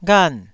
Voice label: "ghan4", Taiwanese